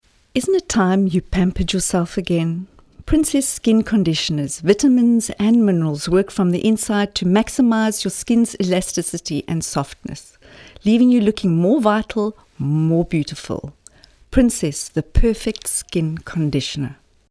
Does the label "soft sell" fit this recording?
Yes